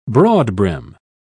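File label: "broadbrim", British English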